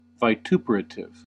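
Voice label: "vituperative", US English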